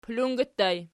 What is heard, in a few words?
"pulungi", Archi